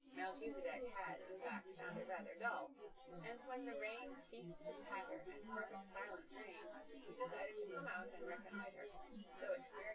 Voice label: female synthconv

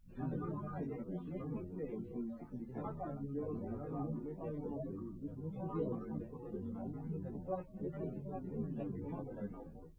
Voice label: male4 liverec 250ms 5cm sim

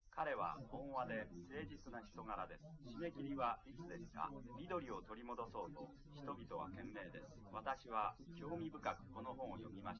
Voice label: male, live rec, 250ms, 5cm; SiSEC08; SiSEC underdetermined

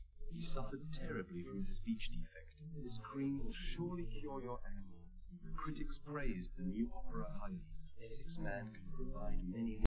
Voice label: male, live rec; SiSEC08; SiSEC underdetermined

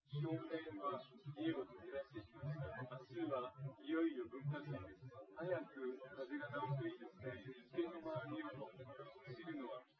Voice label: male synth conv 250ms 5cm sim; SiSEC08; SiSEC underdetermined

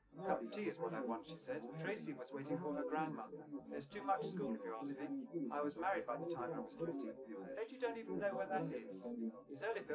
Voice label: male, live rec, 250ms, 5cm

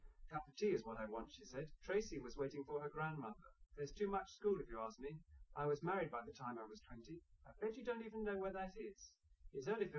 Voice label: test male live rec, underdetermined; SiSEC10

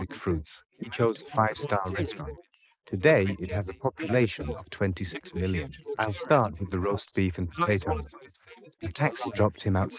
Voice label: male, inst

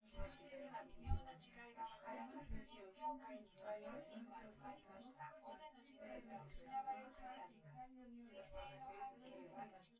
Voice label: female synthconv

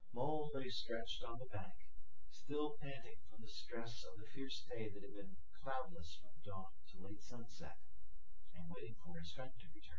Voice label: male synthconv; SiSEC08; SiSEC underdetermined

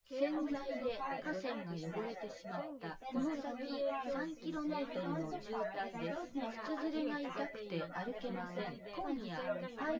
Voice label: female synthconv 130ms 5cm src; SiSEC08; SiSEC underdetermined

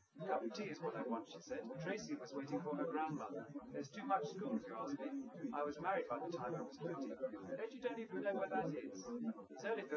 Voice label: male live rec; SiSEC08; SiSEC underdetermined

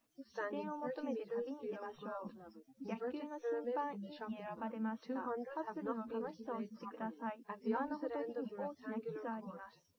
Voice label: female liverec 130ms 5cm; SiSEC08; SiSEC underdetermined

